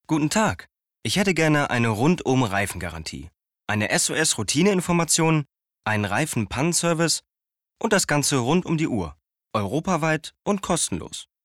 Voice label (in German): Autoversicherung Werbung; LOFT VOICES